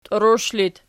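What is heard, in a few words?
"tiurush" locative, Archi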